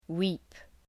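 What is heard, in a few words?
"weep", English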